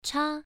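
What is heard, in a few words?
"cha" Mandarin, first tone, pronunciation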